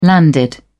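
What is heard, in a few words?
"landed", British English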